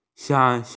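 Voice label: "banana", Mandarin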